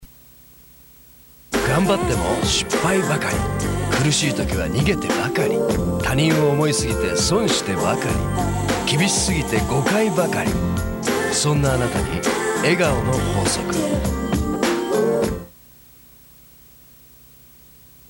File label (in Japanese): 番宣, ナレーションサンプル; TBS「笑顔の法則」